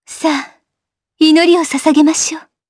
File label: Vox Skill jp; kingsraid